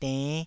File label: "denn5", Taiwanese syllable